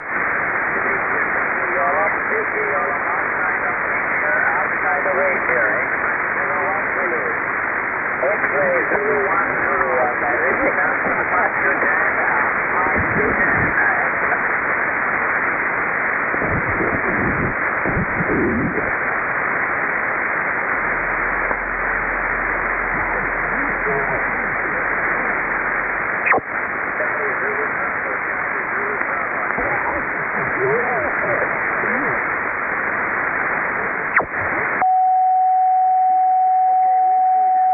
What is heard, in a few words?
20m SSB